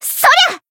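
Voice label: Voice attack, Japanese; orleya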